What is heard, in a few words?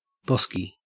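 "bosky", Australian English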